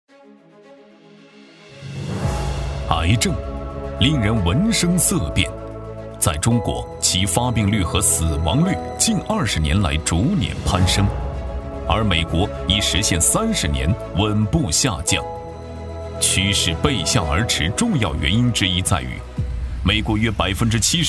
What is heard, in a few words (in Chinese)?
专题 医院 稳重, 男; 山东省立三院肿瘤中心